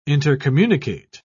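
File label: "intercommunicate", English, British English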